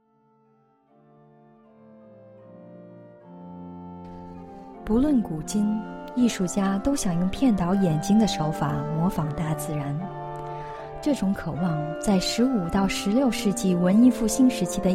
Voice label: Museum Mandarin